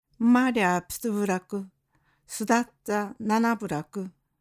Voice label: 宮古伊良部島の言葉; 生ムマレハ 一集落ピトゥスマ 育ソダキハ 七集落ナナスマ。; 黄金言葉; 黄金言葉カレンダー; しまくとぅば普及センター

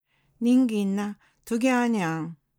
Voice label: 宮古伊良部島の方言; 人間ニンギンナ ツギィア ニャーン。; 黄金言葉; 黄金言葉カレンダー

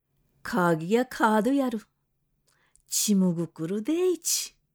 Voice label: 沖縄本島中南部の言葉; 容姿カーギヤ皮カードゥヤル 肝心チムグクル第一デーイチ。; 黄金言葉; 黄金言葉カレンダー

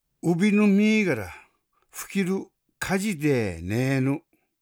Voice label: 八重山宮良の言葉; 指ウビヌ ミーガラ フキル 風カジデー ネーヌ。; 黄金言葉; 黄金言葉カレンダー

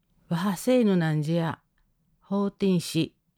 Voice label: 八重山石垣平得の言葉; 若時ワハセーヌ 難儀ナンジヤ コーティン シー。; 黄金言葉; 黄金言葉カレンダー

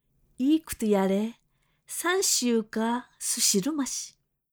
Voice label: 沖縄本島中南部の言葉; 良イー事クトゥ ヤレー サンシユカー スシルマシ。; 黄金言葉; 黄金言葉カレンダー

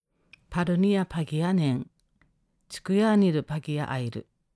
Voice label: 沖縄本島北部今帰仁の言葉; 畑パルニヤ 禿パギヤ 無ネン; チクヤーニル 禿パギヤ イル。; 黄金言葉カレンダー

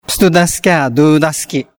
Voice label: 宮古島平良の言葉; トゥダスキャー 自分ドゥーダスキ。; Miyakojima

